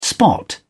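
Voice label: "spot", British English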